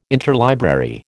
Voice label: "interlibrary", American

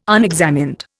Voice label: "unexamined", Indian

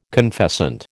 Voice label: "confessant", American

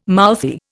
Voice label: "mouthy", Indian

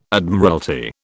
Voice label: "Admiralty", British

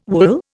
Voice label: "wool", Indian

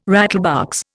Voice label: "rattlebox", Indian

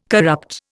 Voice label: "corrupt", Indian

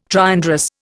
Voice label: "triandrous", Indian

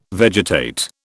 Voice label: "vegetate", British

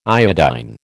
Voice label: "iodine", American

